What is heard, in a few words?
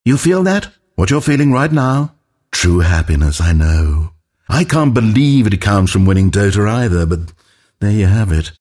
announcer victory; Stanley Parable